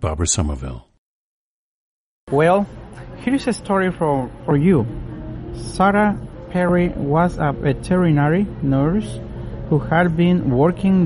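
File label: michoacan, International Dialects of English Archive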